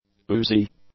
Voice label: "oozy", English; adjectives